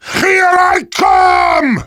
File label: battle cry